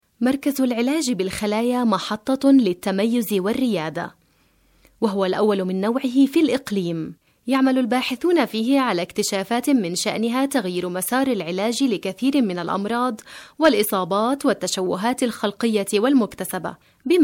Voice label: arabic f narration